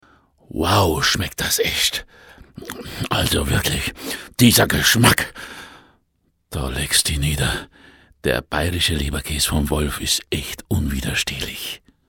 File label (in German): Dialekt Bayerisch